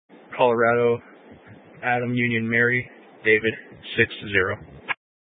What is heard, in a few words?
Mesa County Sheriff Dispatch